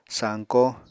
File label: "sañkó", apatani